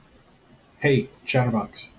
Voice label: hey chatterbox, en-us